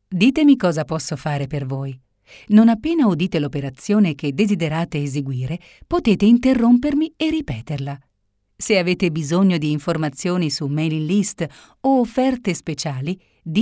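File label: Italian, female, telecom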